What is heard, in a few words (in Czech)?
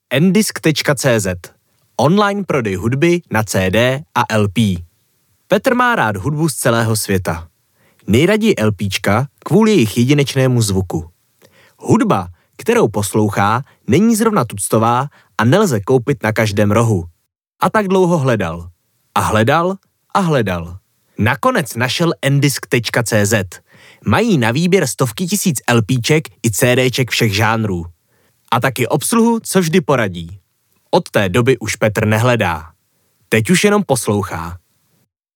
VO, krasny muzsky hlas